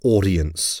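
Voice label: "audience", English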